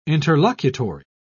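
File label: "interlocutory", English, British English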